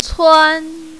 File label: "cuan1", mandarin, female